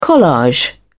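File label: "collage", British English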